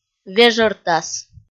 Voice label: "вежӧртас" kpv f

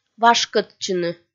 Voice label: "вашкӧдчыны" kpv f

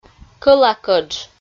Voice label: "кӧла кӧдж" Komi

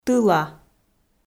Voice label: "тыла", Komi

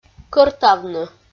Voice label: "кӧртавны" Komi

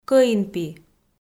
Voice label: "кӧинпи" Komi

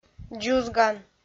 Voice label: "дзузган", Komi

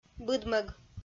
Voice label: "быдмӧг" kpv f

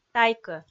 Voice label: "тайкӧ", Komi

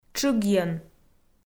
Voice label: "тшыгйӧн", Komi

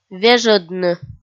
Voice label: "вежӧдны" kpv f

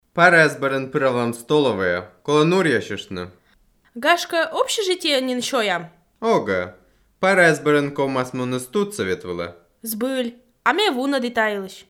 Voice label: dialog univer, Komi

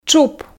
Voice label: "тшуп", Komi

